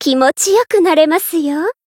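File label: heal, Japanese; pom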